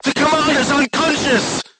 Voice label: voice message commander contused, crew tanks portuguese